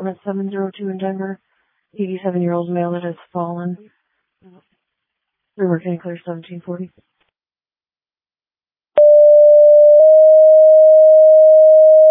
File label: Ambulance, dispatch; Denver